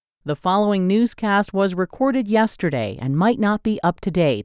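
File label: announcement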